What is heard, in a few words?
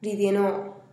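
"ridienoo", Teotitlán del Valle Zapotec; follow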